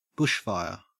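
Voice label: "bushfire", Australian English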